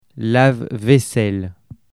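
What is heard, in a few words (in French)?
"lave-vaisselle", French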